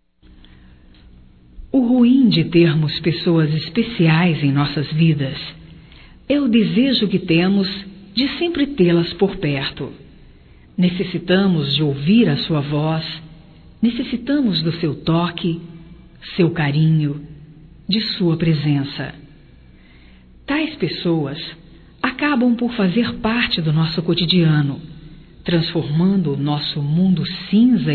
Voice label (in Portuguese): Despedida Geral Feminino; Despedidas e boas vindas; Telemensagens 2017